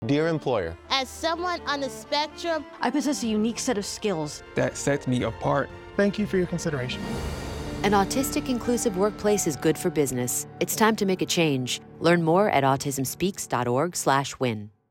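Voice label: Dear Employer English Radio; Dear Employer 15sec English Radio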